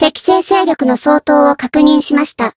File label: win jp